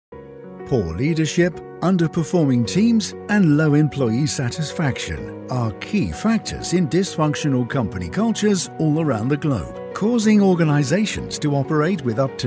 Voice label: english british explainer videos; British voiceover Artist based in Spain; natural accent